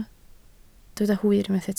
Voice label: "hwyr", Welsh